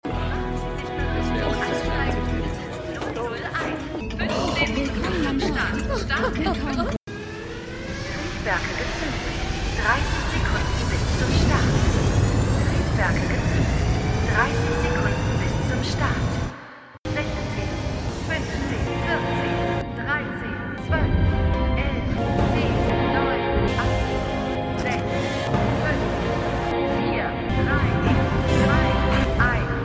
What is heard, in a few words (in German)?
Durchsage; Hello Tomorrow